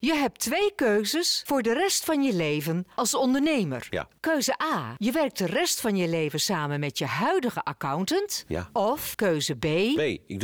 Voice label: Commercial radio